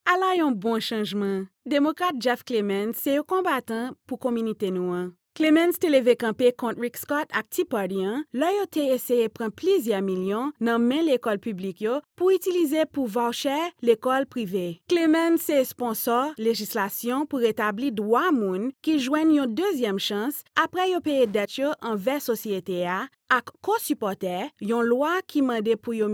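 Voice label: Haitian political spot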